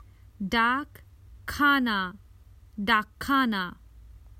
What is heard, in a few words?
Common Hindi Phrases for travel The post office